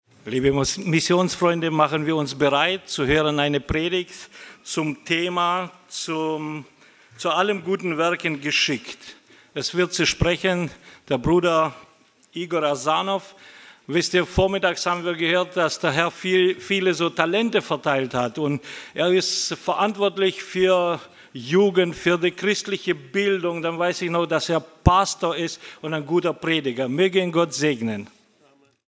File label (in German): Ansage